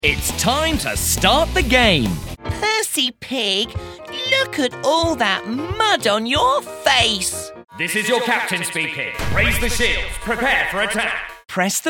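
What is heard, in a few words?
British English, toys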